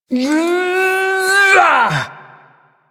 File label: Ability Throw, Barks; Warframe; Corpus Lich; Persona A